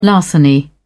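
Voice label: "larceny", British English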